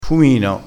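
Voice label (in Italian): "fumino", dialettale crespinese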